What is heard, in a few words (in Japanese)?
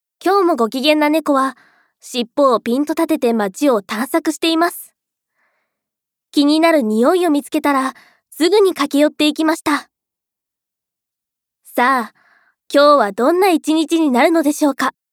ナレーション; テレビ東京系列 おはスタ内 ショートストーリー「ななし怪談」ガイド音声